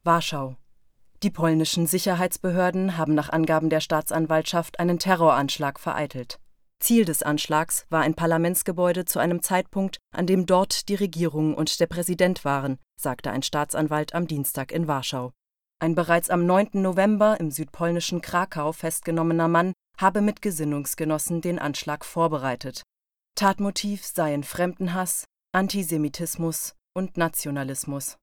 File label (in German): Nachrichten